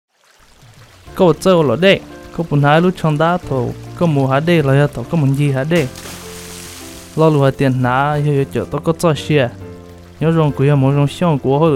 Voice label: Water Safety Hmong Just Wear It; Lake Projects